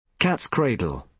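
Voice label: "cat's cradle", British English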